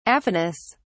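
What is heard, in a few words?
"aphonous", British English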